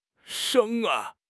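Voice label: "reng1", Chinese; HSK4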